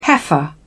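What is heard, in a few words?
"heifer", British English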